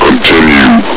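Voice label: "continue", male; hl2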